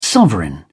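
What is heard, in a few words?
"sovereign", English, British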